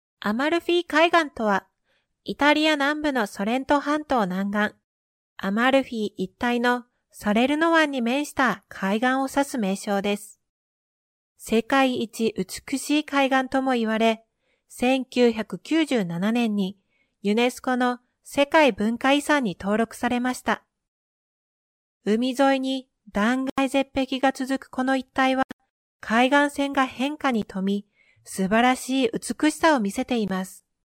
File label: Jp female, japanese